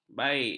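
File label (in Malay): "baik", Malay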